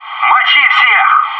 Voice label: "dropped him", bot

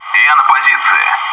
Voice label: "front", bot

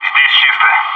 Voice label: "clear", bot

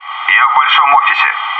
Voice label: "big office", bot

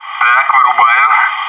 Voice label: "defusing", bot; maxpack

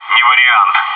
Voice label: ahh negative

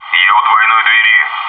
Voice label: double doors, bot

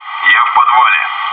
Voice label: "basement", bot

